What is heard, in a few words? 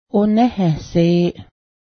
new corn, cayuga